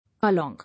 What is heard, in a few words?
"Along", English